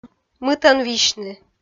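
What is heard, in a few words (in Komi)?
"мытӧн висьны", Komi, female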